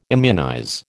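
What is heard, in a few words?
"immunize", American English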